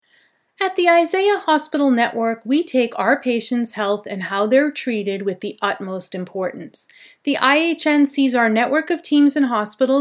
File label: Training Video Medical; Voiceover Training Medical Healthcare Hospital Group; Professional voice acting